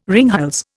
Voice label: "ringhals", Indian